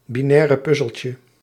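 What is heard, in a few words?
"binaire puzzeltje", Dutch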